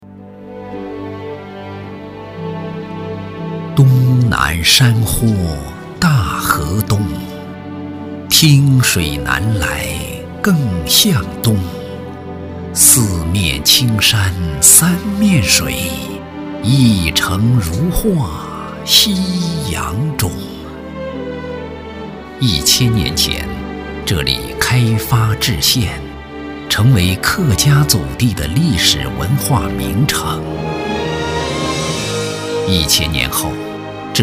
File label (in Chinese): 男 专题 舒展 大气, 国语男声配音; 上杭